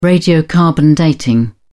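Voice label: "radiocarbon dating", British English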